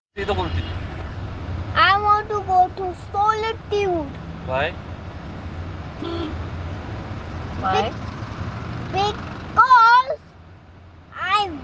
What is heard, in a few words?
childs voice